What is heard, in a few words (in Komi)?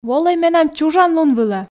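волӧй менам чужан лун вылӧ, Komi, female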